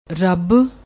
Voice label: "rubb", Punjabi

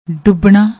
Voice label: "dubbana", Punjabi

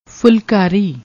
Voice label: "foolkaari", Punjabi

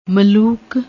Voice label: "malook", Punjabi; ਮਲੂਕ

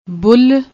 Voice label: "bull", Punjabi

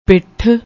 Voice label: "pitth", Punjabi

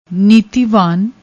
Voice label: "nitivan", Punjabi

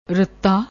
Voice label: "ratta", Punjabi

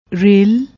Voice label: "rail", Punjabi; ਰੇਲ

